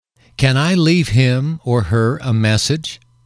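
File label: "can I leave him her a message", male, English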